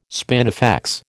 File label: "spinifex", American